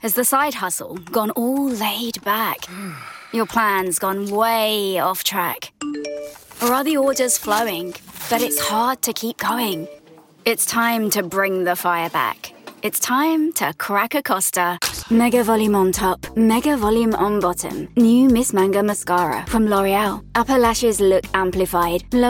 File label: Commercial